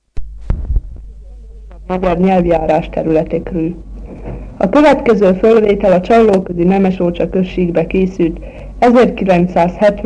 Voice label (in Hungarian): nyelvjaras gyujtesek